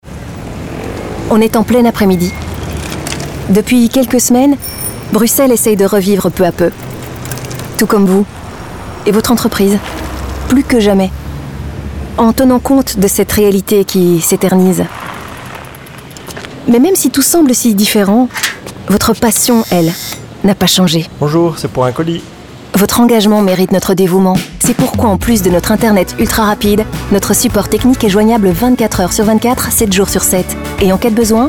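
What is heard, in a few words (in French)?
radio, French; telenet business bxl fr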